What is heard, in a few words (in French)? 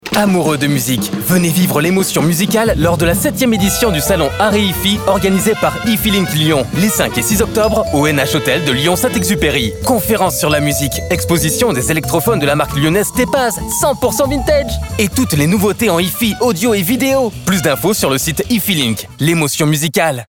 spot jazz radio; hifi link sur jazz radio